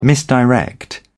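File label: "misdirect", British English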